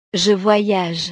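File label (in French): "je voyage", French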